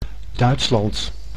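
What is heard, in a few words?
"Duitsland", Dutch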